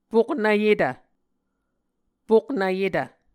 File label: "poknvyetv", Muscogee